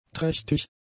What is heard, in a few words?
"trächtig", German; adjectives